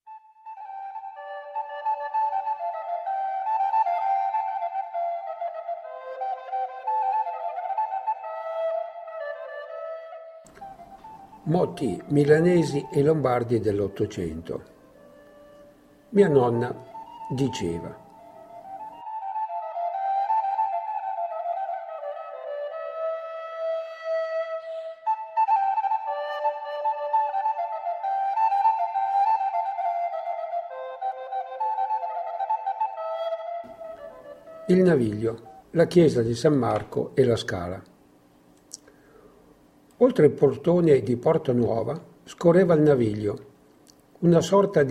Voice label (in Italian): dialetto